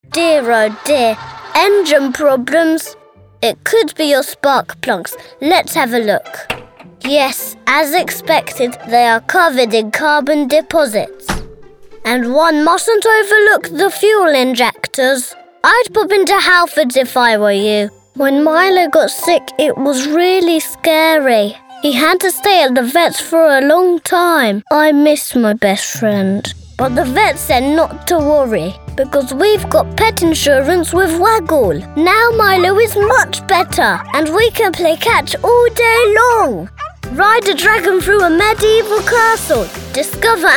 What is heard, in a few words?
Commercial Showreel